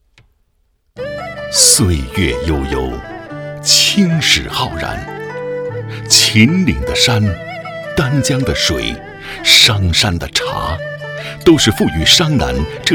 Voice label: 男 专题 城市光影

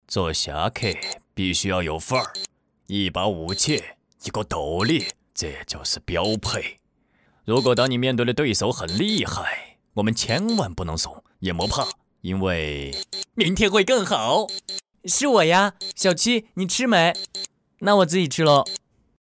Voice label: 男 角色 搞笑动画 两个角色精分

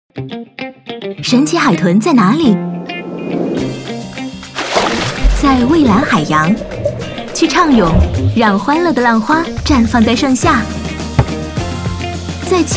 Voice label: 女 广告 欢快电台风地产营销; 神奇海豚在哪里

